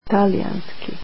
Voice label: Italian, croatian

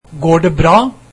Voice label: Are you okay, norwegian

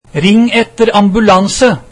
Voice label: Call the ambulance, norwegian

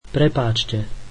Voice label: Sorry, slovak

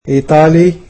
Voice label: "Italian", egyptian

